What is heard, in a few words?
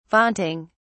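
"vaunting", British English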